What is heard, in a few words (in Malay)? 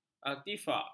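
"artifak", Malay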